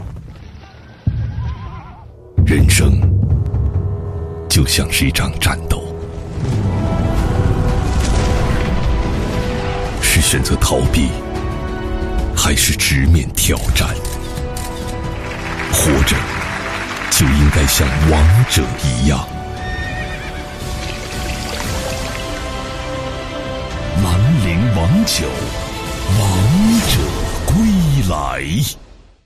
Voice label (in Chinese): 男 品牌广告 酒; 兰陵王酒